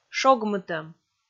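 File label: "шогмытӧм", Komi, female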